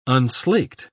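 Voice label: "unslaked", British English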